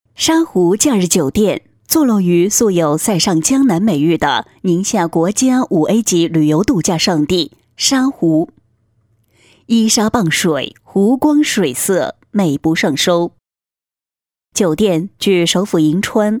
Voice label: 女 酒店专题 稳重大气 企业介绍; 洪亮激情; 大气浑厚

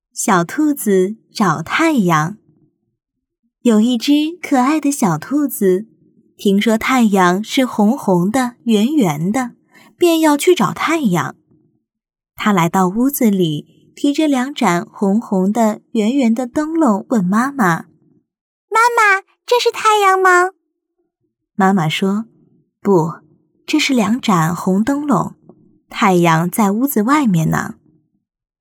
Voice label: 女 绘本故事 儿童故事 亲切 多角色变换; 温柔知性

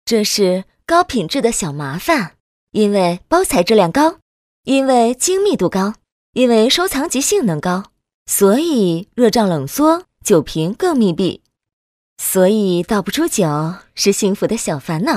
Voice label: 女 产品介绍 瓶盖设计 明亮甜美

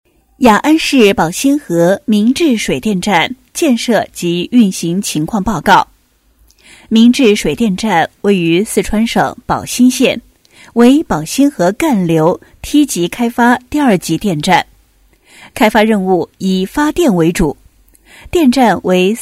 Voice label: 女 工程汇报; 民治水电站; 洪亮激情; 沉稳大气

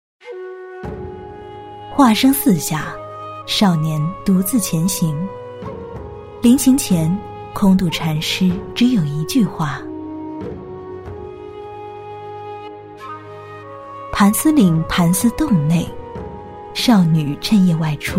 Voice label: 女, 旁白; 舒缓抒情; 梦幻西游

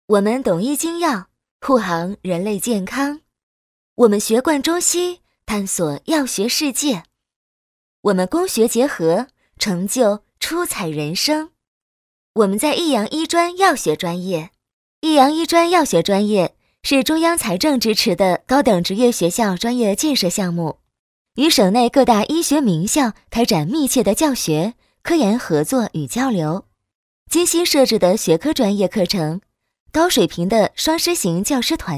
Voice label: 女-学校宣传; 女5-年轻甜美 甜美亲切; 益阳医专护理专业

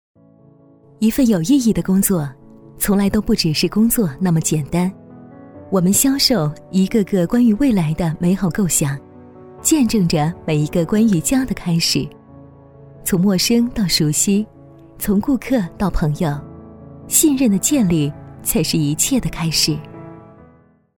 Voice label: 女-微电影-温情坚定; 大气温暖; 温和抒情

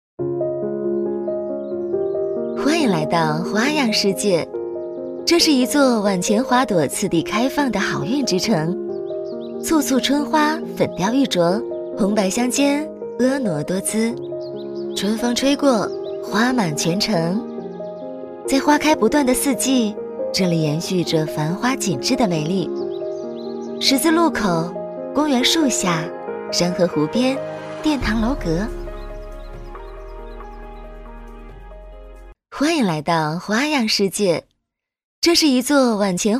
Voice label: 女-景区介绍- 轻松舒适; 花城; 旅游风光景区配音

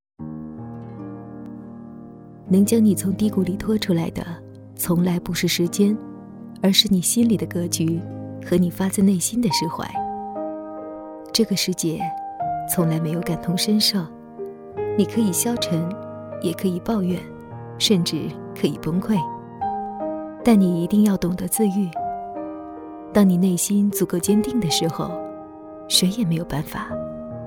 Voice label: 女-素人旁白 -温情走心; 大气温暖; 温和抒情

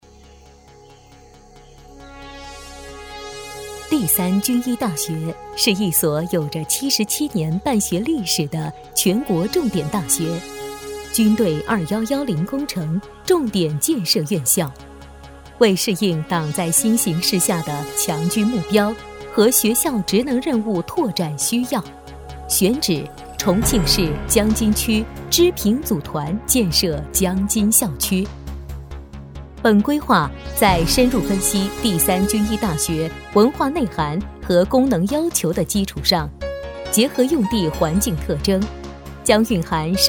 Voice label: 女-工程专题-大气; 大气温暖; 沉稳大气; 第三军医大学

